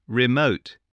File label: "remote", spelling voice